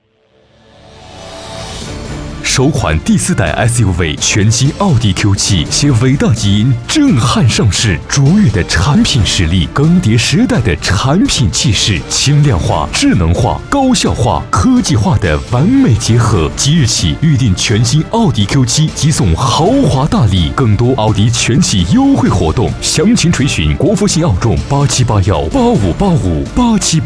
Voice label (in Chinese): 男 广告 汽车广告